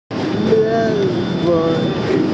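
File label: "mian4fen3", Mandarin Chinese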